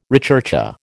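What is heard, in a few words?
"recherché", American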